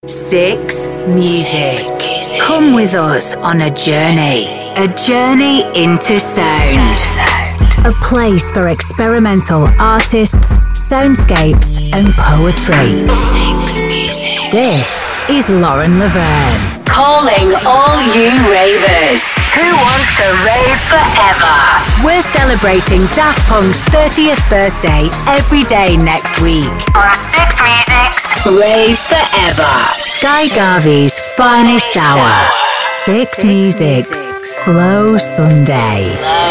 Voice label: english british radio imaging; multi-award nominated northern (Lancashire/Manchester/Liverpool)